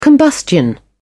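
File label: "combustion", British English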